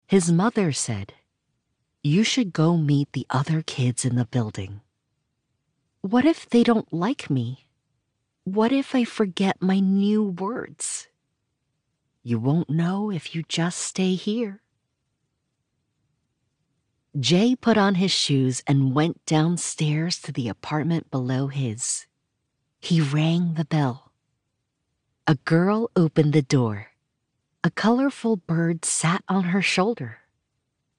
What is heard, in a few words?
Audiobook, voiceover